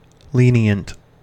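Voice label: "lenient", US English; pronunciations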